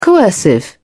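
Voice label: "coercive", English, British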